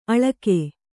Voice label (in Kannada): "ಅಳ್ಗು", Kannada